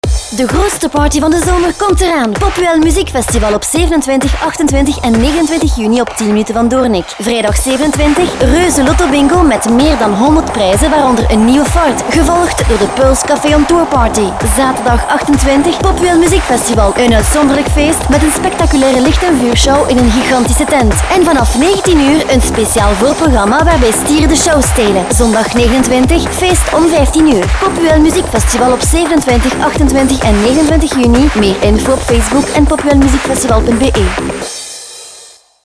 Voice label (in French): Voix Fem Neerlandaise Jeune